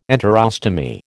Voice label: "enterostomy", American